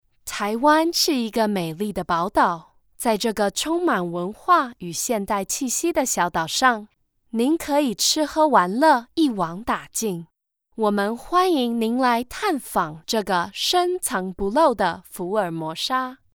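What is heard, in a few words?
Chinese Mandarin Female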